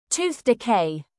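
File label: "tooth decay", British English; Time, history; Thời gian, lịch sử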